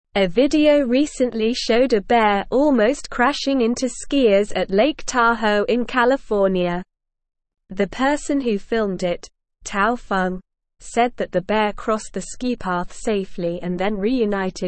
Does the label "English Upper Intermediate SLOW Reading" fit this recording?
Yes